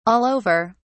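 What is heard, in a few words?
"all over", British English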